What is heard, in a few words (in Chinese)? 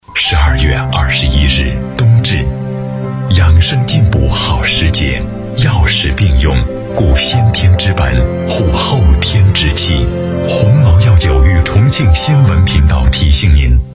男 广告